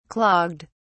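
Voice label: "clogged", British English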